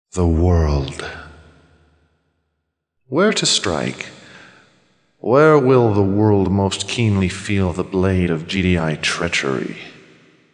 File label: Speech, English; Kane's Speech (English); command and conquer tiberian dawn soundtrack